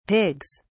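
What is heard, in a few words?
"pigs", British English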